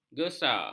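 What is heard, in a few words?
"gesa", Malay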